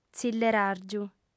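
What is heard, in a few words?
"tzilleràrgiu", female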